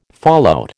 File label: "fallout", American